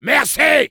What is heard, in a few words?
soldier thanks, fr